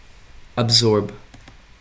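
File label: "absorb", USA, male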